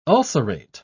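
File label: "ulcerate", British English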